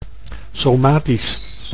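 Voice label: "somatisch", Dutch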